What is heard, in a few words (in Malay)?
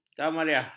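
"kamariah", Malay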